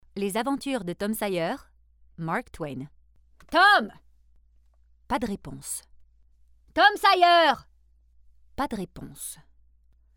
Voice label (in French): Narration francais normatif et personnage Charnue; Tom Sawyer